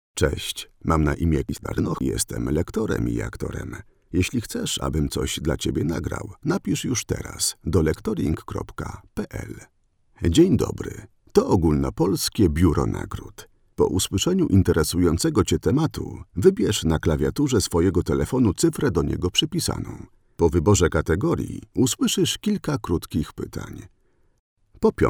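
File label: Polish male; lektoring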